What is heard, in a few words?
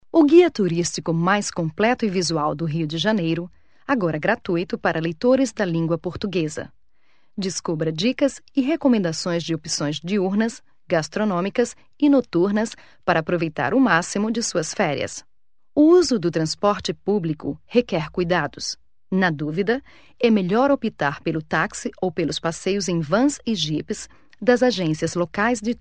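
Portuguese Brazil, female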